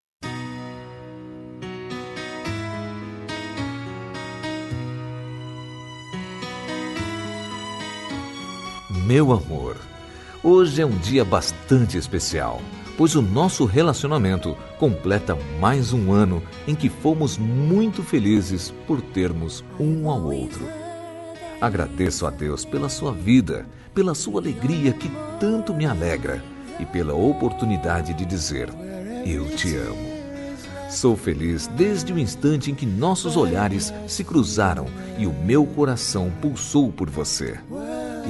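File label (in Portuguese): Evangélica Aniversário de Namoro, male, telemensagens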